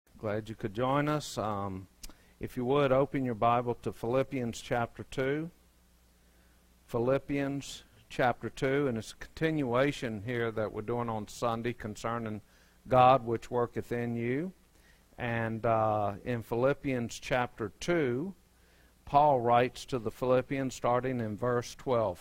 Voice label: Preaching